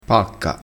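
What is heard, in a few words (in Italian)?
"pacca", dialettale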